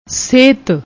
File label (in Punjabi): "sehat", Punjabi; ਸਿਹਤ